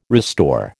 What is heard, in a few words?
"restore", American